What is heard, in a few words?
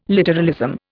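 "literalism", Indian English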